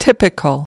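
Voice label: "typical", English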